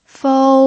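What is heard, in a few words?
"fou", Mandarin Chinese, first tone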